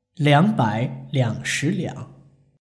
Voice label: "liangbailiangshiliang", Chinese